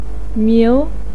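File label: "miu" second tone, Mandarin Chinese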